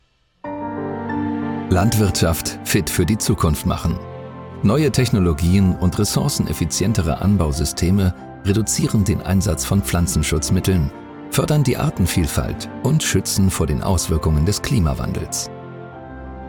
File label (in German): DE Imagefilm; Zalf